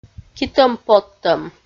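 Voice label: "китӧм-подтӧм", Komi, female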